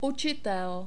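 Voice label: "ucitel" Czech, male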